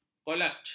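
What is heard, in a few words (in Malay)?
"kolaj", Malay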